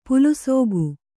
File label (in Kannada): "ಪಲಸಿಗೆ", Kannada